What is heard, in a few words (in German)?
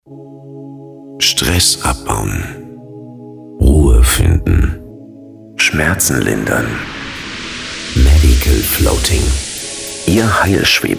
werbung, deutsch maenner; sprecher